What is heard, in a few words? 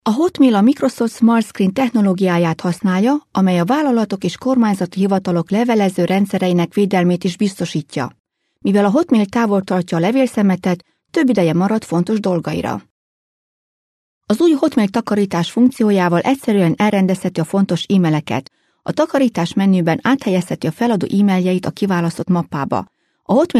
Hungarian F Training